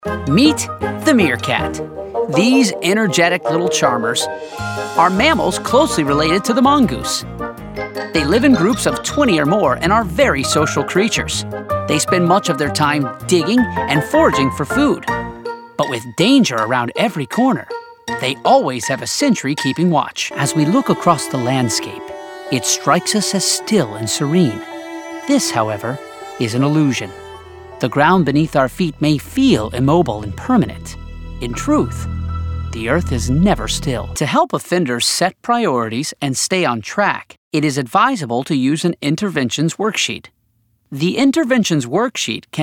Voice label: NARRATION